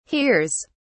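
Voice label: "hears", British English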